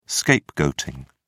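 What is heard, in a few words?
"scapegoating", British English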